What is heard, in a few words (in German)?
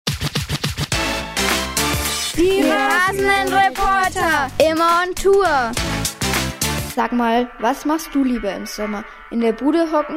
Filmvorstellung mit Jingle; Pubertier